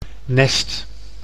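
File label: "nest", Dutch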